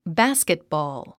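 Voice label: "basketball", English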